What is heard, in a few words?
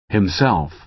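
"himself", American English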